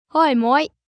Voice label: Aussie Hey Mate, Greeting, 1 boy, 11 yrs; Talking Sound Effects